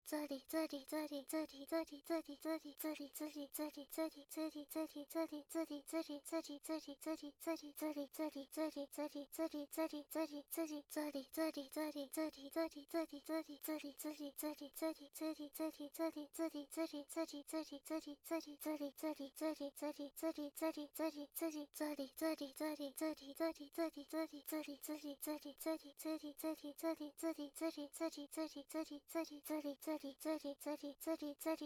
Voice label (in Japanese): ずりずりボイス 鬼畜; 汎用エロボイス; おまけ音声（汎用指示ボイス・汎用エロボイス）